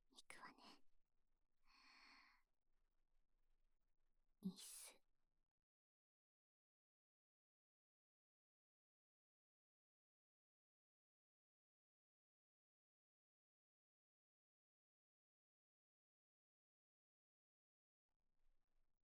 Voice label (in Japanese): 認知シャッフル睡眠ボイスのみ